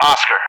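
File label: "Oscar", English; Alphabet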